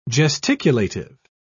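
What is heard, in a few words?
"gesticulative", British English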